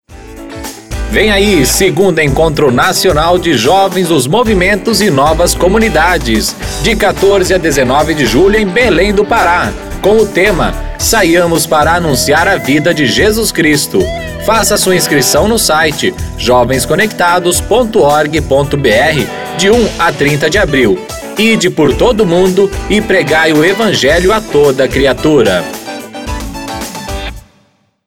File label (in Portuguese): Spot